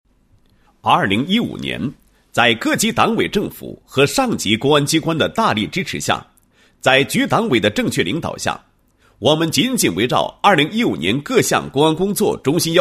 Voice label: 男27-工作汇报; 2015年度政工工作汇报材料

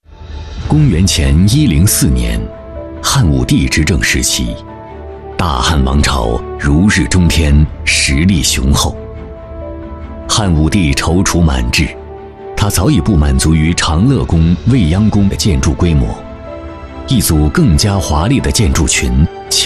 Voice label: 男 电视栏目; CCTV-10; 百家讲坛

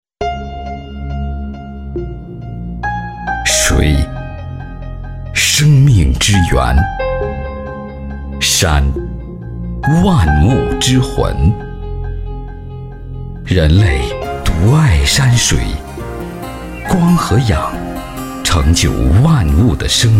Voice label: 男 广告 地产

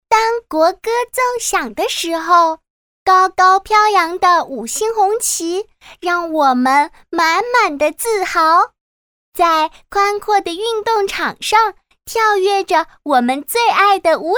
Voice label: 女 独白 小女孩

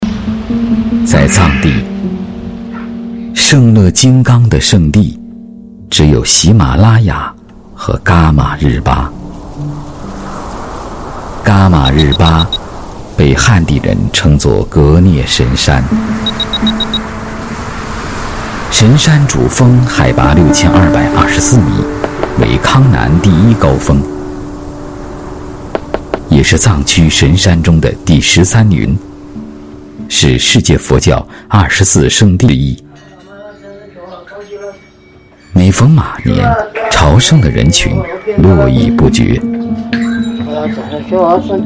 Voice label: 男 西藏纪录片

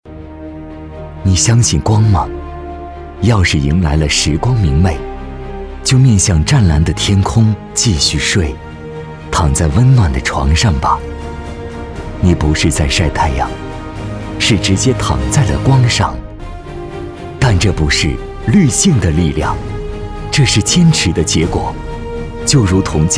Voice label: 男 颁奖 舒缓 大气; 金鹰五周年

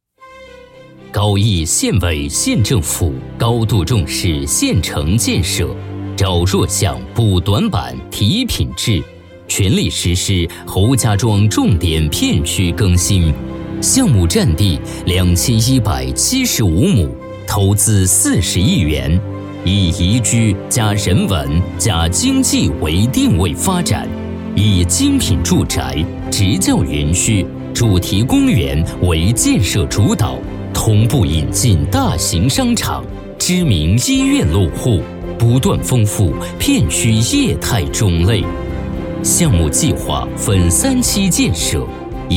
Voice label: 男 专题 大气明亮; 高邑

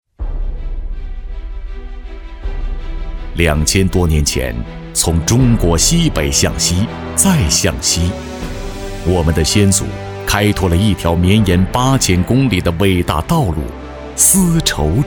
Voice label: 男 宣传片 大气厚重宣传片; 酒泉

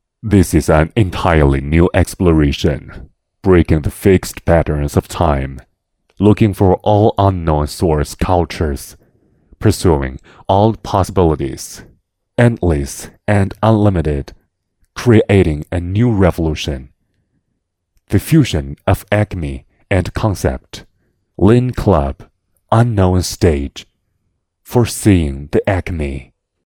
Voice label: 男 英文 神秘

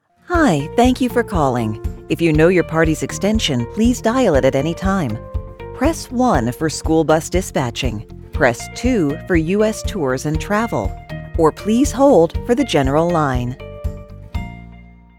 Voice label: 电话语音

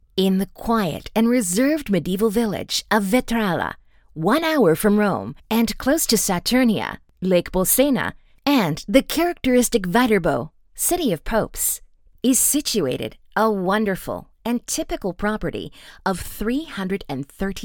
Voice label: 亲切 房产宣传介绍

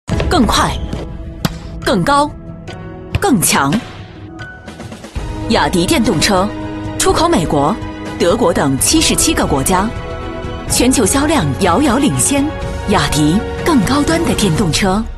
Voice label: 女 广告 电动车; 雅迪电动车-奥运冠军版

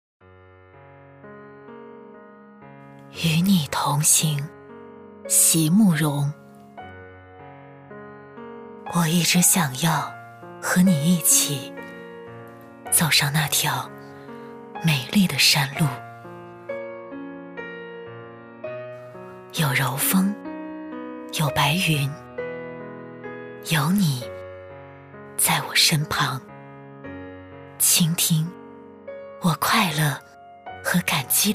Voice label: 女 朗诵; 席慕蓉-与你同行

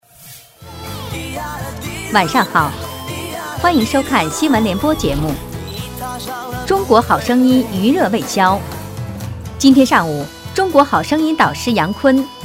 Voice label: 女 新闻

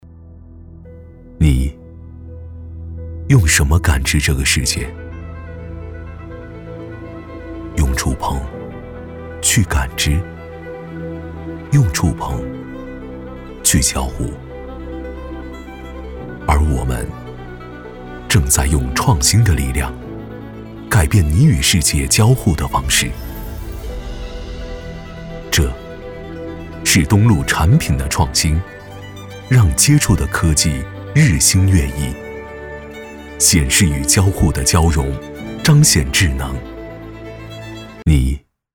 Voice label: 男 专题

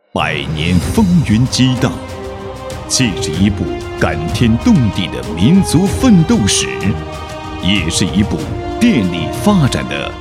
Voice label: 男 宣传片 大气 电力

